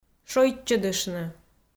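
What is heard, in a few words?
"шойччӧдыштны", Komi, female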